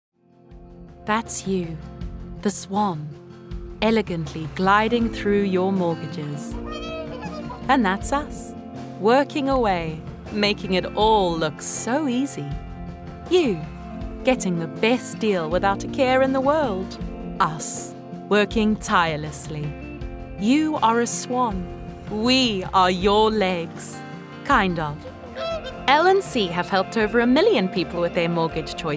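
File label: South African English, Commercial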